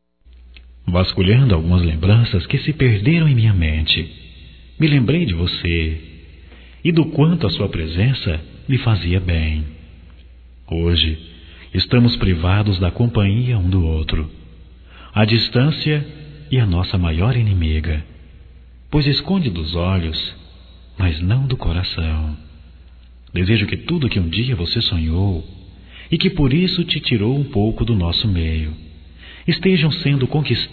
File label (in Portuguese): SAUDADE ROMANTICA, Telemensagens, masc